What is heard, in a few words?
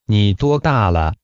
Mandarin Conversation